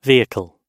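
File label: "vehicle", English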